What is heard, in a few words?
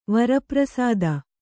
"ವರಪ್ರಸಾದ", Kannada; vara prasāda; noun